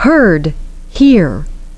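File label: "herd" "here", English pronunciation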